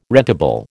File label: "rentable", American